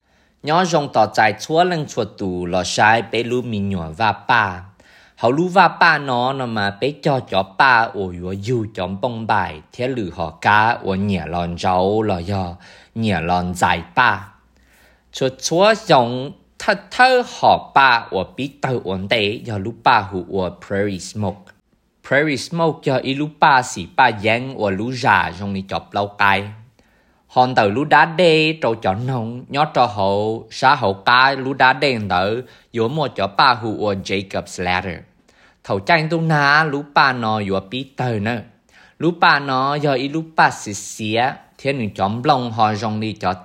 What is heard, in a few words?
Hmong; Pollinator; garden tour